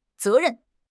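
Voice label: "ze2 ren4", Mandarin Chinese; HSK4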